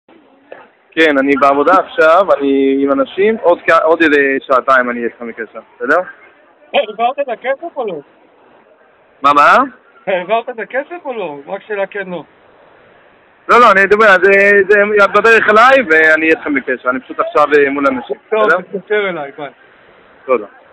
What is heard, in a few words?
Call recording